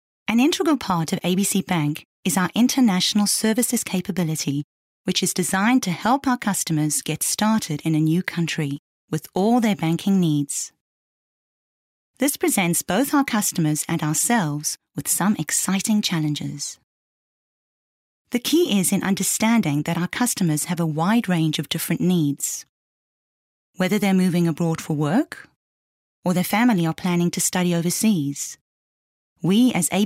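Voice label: South African English Corporate; GoLocalise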